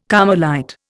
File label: "Carmelite", Indian